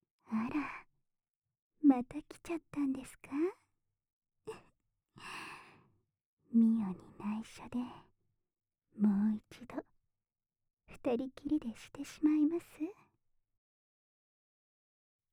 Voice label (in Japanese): フリートーク, ASMR; KU100